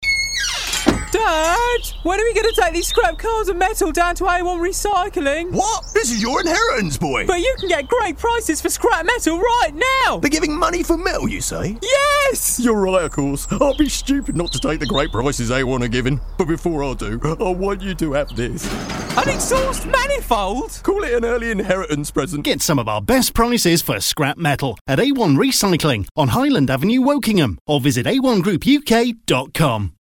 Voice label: radio ad teenager and dad; a1 metal recycling

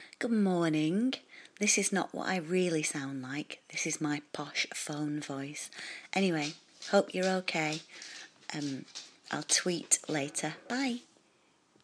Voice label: posh phone voice

